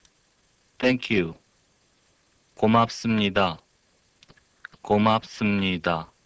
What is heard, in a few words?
Korean Thanks